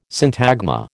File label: "syntagma", American